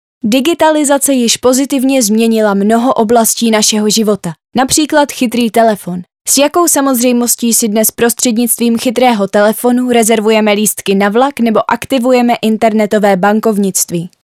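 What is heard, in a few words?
Czech, female, narration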